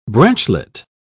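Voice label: "branchlet", British English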